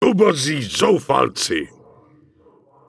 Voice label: Night Elf Male Incoming; wowpreklad; czwow; NightElfVocalMale